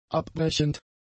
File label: "abbrechend", German; adjectives